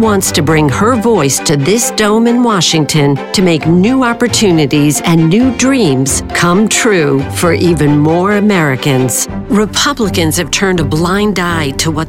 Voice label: political women

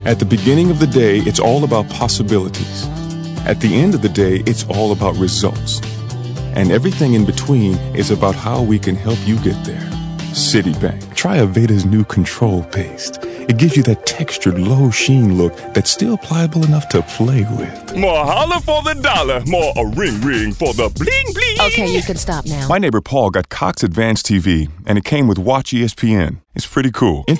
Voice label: commercial, men

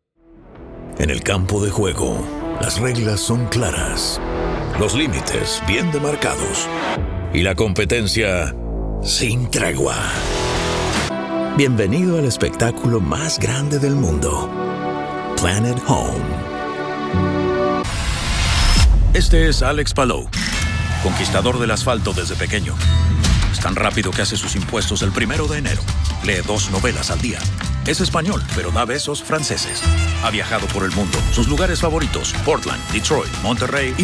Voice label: promo men spanish

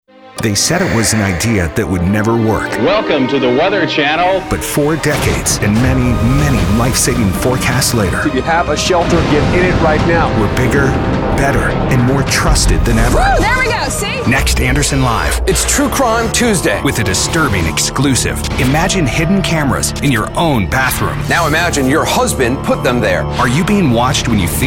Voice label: promo men news

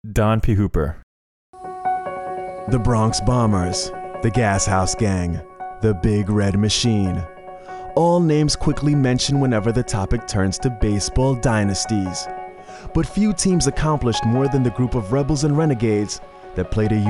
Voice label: narration men

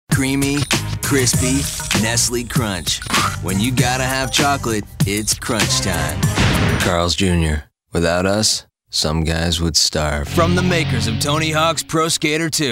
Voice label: commercial, men

